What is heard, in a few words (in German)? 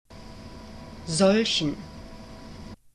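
"solchen", German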